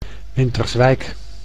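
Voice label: "Winterswijk", Dutch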